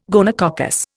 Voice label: "gonococcus", Indian